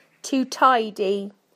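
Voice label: "to tidy", British English; PictureDictionary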